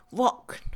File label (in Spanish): peine, Mixe, Mujer; Ropa; INALI